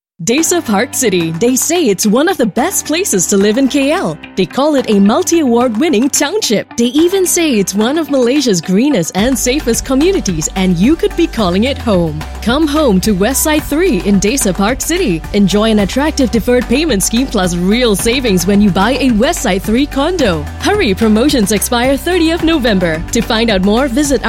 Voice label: ENG; SA PARK CITY WESTSIDE